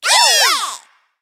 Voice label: tower win ja